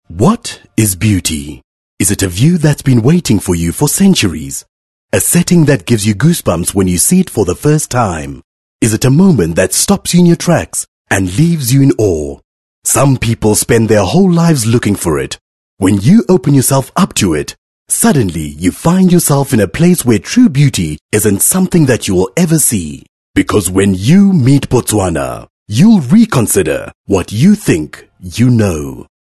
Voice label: English, soft sell